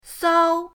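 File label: "sao", Mandarin pinyin, first tone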